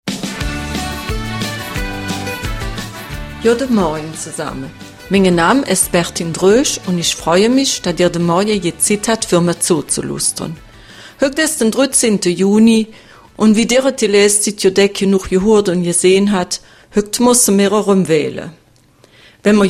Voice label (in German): Mundart; Traditionen aus Bütgenbach und Kettenis